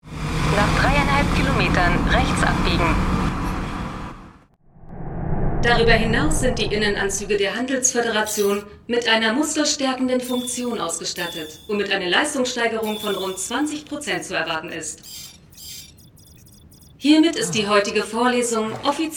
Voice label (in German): Synchron; KI